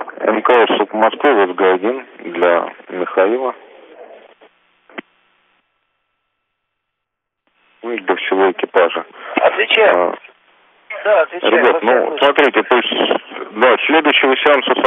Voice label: Space to Ground; Expedition 39